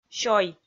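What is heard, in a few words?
"сёй", Komi, female; kpv audio dict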